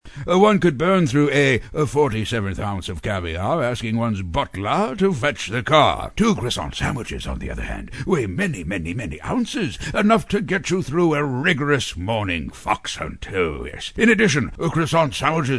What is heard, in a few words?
Snob, British & Aloof, commercials